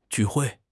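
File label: "ju4 hui4", Mandarin Chinese; HSK4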